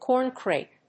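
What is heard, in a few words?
"corncrake", English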